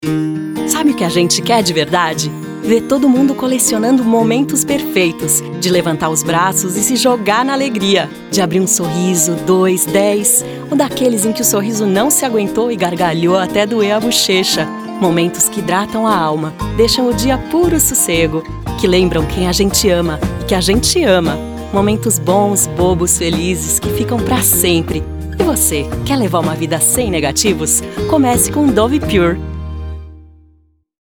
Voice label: portuguese brazilian commercial